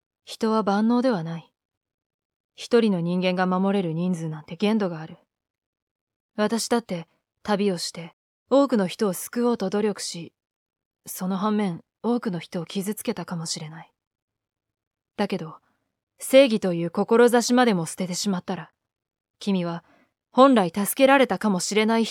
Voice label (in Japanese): 男っぽく; 同じ台詞で２パターン 男女その２